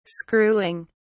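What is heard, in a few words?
"screwing", British English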